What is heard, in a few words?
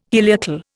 "heliacal", Indian